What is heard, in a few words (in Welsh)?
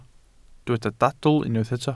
"dadl" Welsh